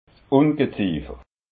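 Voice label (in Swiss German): "ungeziifer", Alsatian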